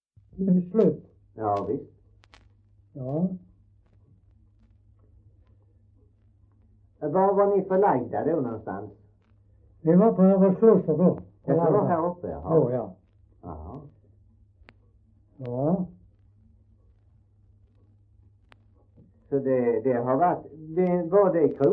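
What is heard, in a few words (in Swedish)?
Hallaröd Skåne, svenska sagor dialekter; Folkminnen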